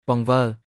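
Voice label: "បង្វិល", Khmer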